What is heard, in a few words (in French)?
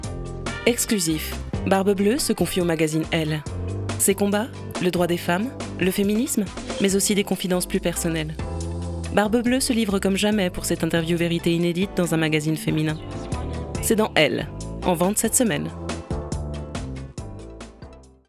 voix elegante